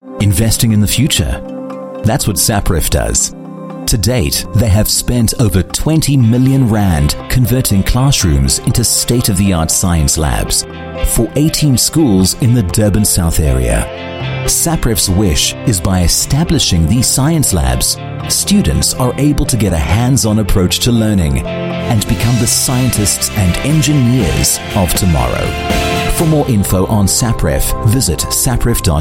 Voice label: African English Natural Conversational, South African Male